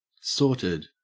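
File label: "sorted", Australian English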